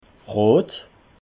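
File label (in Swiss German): "rot", Alsatian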